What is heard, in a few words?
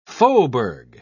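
"faubourg", British English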